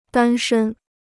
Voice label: "单身", Chinese